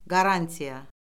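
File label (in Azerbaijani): "qarantiya", Azerbaijani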